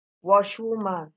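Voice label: "wash woman", Nigerian Pidgin, female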